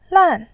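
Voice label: "lan4", Mandarin Chinese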